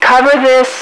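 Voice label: "cover this", female radio voice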